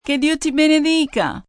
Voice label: God bless you, female, Italian; human voice speaking; Greetings And Goodbye

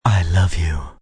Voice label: I Love You M, male; Talking Sound Effects; Endearment; VoiceBox; Twistedtracks

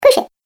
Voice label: Cartoon Game Voice "Push It"; Cartoon Voice Sound Effects

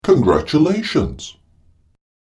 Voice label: "Congratulations" British Male Voice; Recorded Voice Sound Effects; human voice sound effect; spoken word

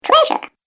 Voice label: Cartoon Game Voice "Treasure"; Cartoon Voice Sound Effects

